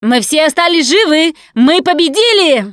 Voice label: transition close, russian, Survivor Voice Producer